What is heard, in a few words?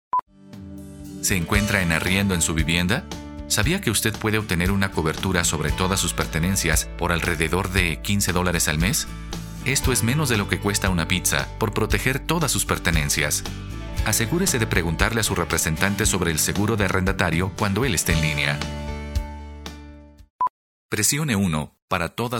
M